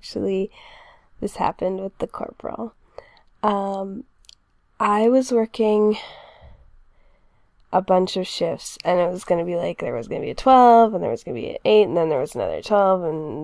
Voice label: noise violation storytime